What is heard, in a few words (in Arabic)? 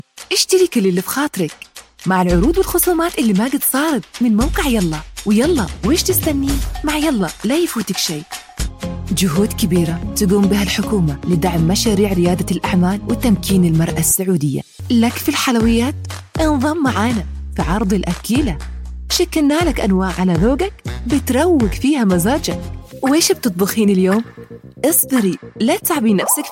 عينة حماسي